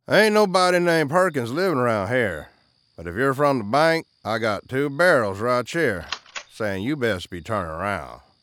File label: Southern Farmer